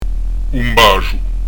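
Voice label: "un basgiu", Corsican; un b'aƷu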